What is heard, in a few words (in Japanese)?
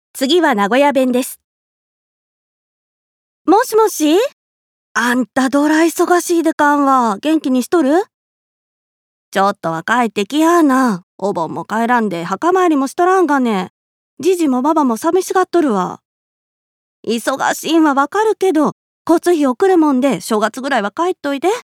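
方言, 名古屋弁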